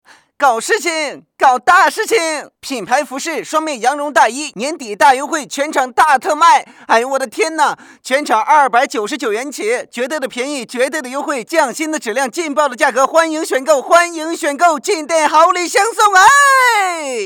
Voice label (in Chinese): 男 促销广告; 岳云鹏